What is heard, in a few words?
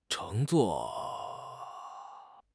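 "cheng2 zuo4", Mandarin Chinese; HSK4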